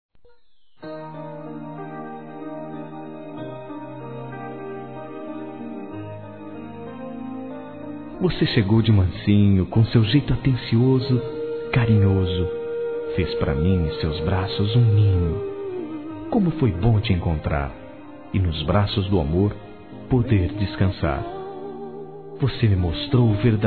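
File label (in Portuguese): ROMANTICA, Telemensagens, Românticas masculino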